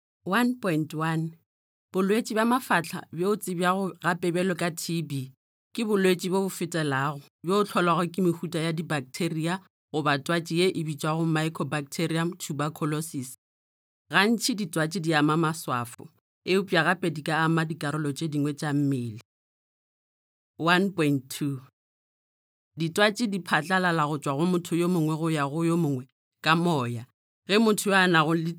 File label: female sepedi voice over narrative, sotho northern